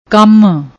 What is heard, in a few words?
"kanm", Punjabi; ਕੰਮ